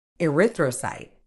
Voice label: "erythrocyte", uk